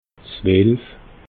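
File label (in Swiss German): "zwelf", Alsatian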